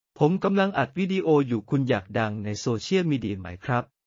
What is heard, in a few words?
Thai, basic thai phrases